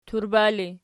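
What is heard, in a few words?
"turba" ergative, Archi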